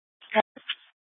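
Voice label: Mesa Police Department Superstition Dispatch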